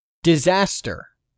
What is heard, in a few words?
"disaster", English; 3000 words